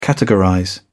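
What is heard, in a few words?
"categorize", British English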